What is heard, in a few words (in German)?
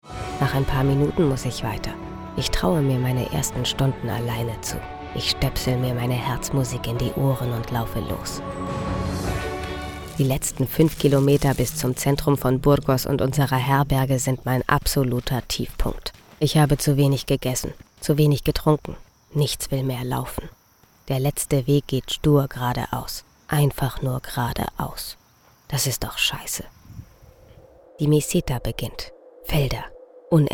dokumentation; paulinchens jakobsweg